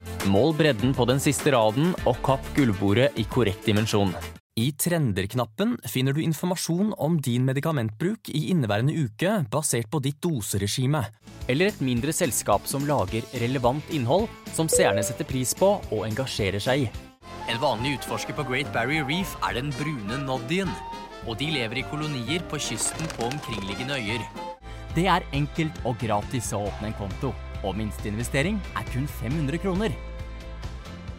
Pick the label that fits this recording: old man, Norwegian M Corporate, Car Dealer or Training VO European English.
Norwegian M Corporate